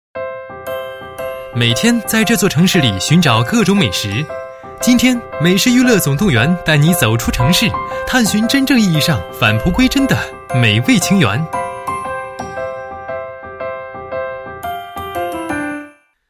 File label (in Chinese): 男-美食栏目; 美食娱乐总动员